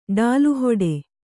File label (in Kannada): "ಡಾಲುಹೊಡೆ", Kannada